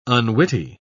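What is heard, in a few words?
"unwitty", English, British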